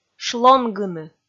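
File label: "шлонгыны", Komi, female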